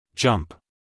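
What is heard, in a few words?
"jump", American English male; everyone-can-use-english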